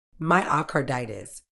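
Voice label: "myocarditis", British English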